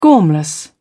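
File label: "gormless", English, British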